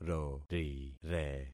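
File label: "rorire", concatenated syllables; Affixing experiment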